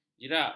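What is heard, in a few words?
"jirak", Malay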